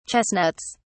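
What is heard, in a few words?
"chestnuts", British English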